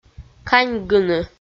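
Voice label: "каньгыны", Komi, female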